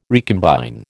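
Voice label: "recombine", American English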